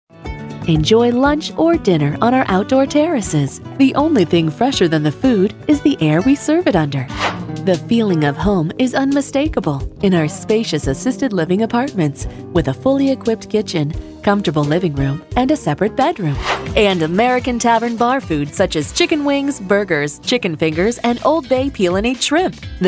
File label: female, female voice artists